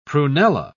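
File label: "prunella", British English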